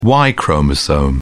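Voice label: "y chromosome", British English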